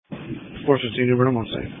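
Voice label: Police Patrol (Dispatch)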